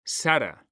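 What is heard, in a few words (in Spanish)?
"sara", male; mekanta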